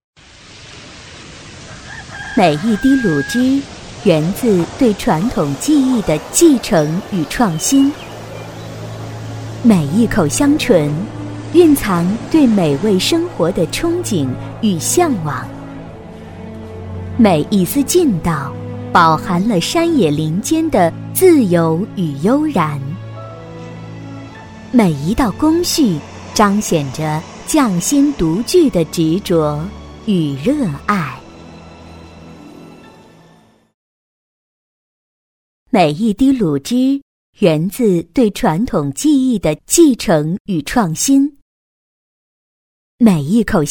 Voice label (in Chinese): 食品广告; 诸葛小鸡